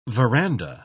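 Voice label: "verandah", British English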